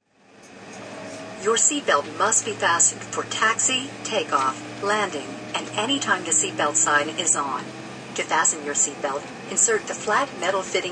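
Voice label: Plane Safety Instructions, Stewardess announces safety instructions before airline takeoff; Airplanes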